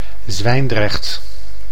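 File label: "Zwijndrecht", Dutch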